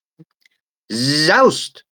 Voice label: voice message